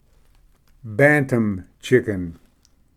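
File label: "bantam chicken", English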